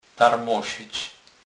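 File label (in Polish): "tarmosec", Kurpie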